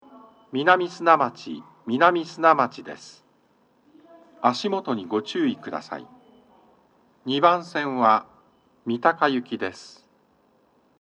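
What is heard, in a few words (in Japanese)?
toucyaku, 到着放送 【男声】; 2番線; 放送は「各駅停車 三鷹行」です。; 南砂町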